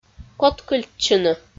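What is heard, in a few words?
"коткыльтчыны", Komi, female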